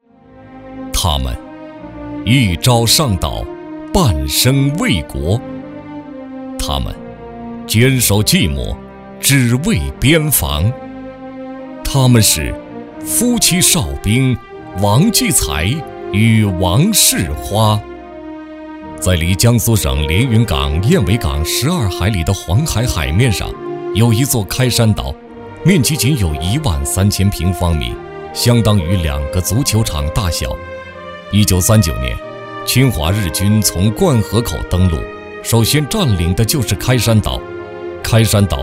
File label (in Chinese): 国语男声配音; 人物颁奖; 类似感动中国; 央视老师